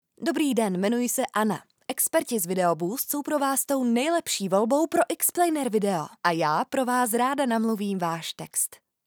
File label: Czech